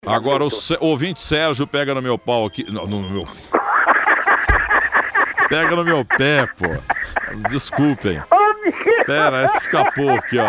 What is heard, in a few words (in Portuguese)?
gafe radiofonica